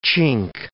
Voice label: "chink", English